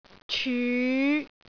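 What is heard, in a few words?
"cyu5", Cantonese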